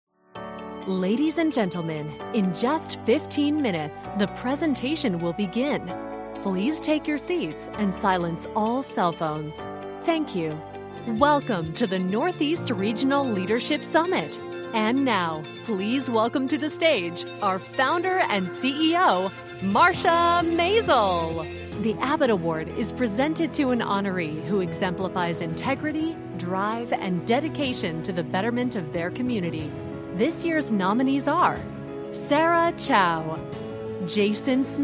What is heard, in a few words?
english american announcements; actriz de voz premiada